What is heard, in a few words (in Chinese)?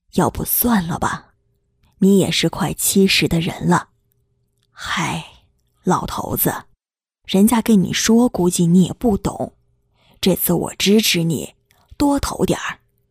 女 角色类 老太太 老伴儿